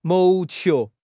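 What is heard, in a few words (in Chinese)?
"谋求", Chinese; HSK6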